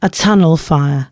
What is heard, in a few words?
announcements, female